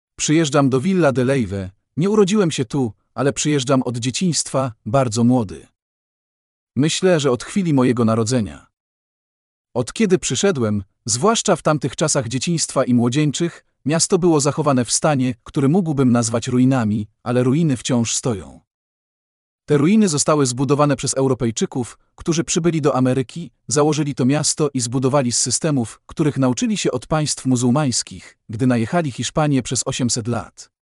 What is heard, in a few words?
POLISH